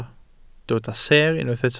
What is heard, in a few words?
"seer", Welsh